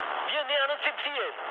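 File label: German near waypoint mood med, speech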